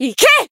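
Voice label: Voice limit break Japanese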